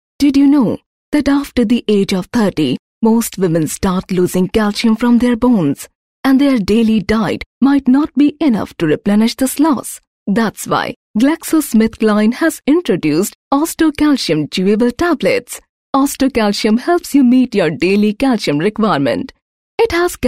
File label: female voice, Eng